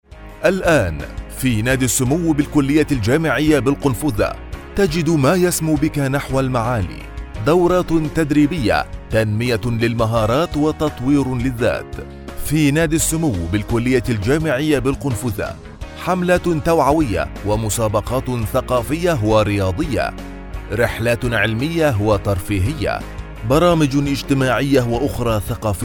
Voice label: arabic commercial

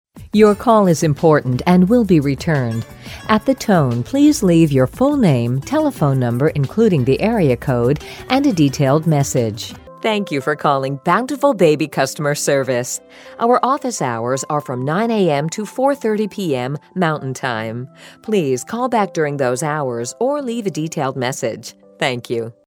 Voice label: US tele

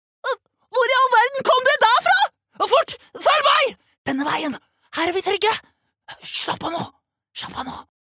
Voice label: Norwegian dub, male

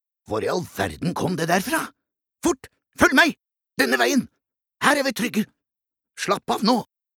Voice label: Norwegian dub, male

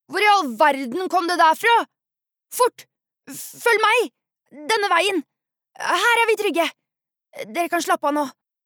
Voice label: Norwegian dub, female

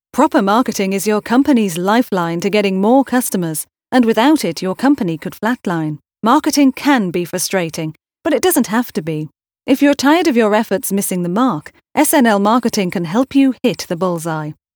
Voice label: uk info

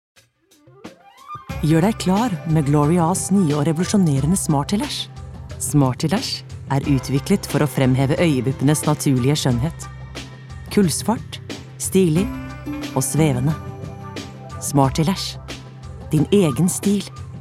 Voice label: no soft sell

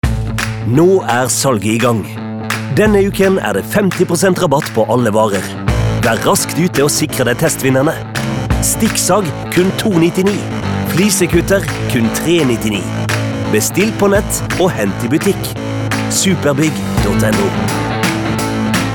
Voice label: Norwegian hardsell, male

